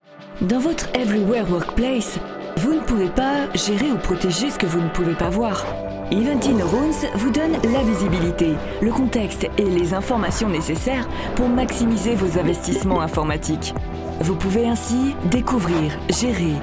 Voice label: VO Corporate; Ivanti Manage